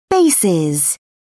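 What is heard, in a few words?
"bases", British English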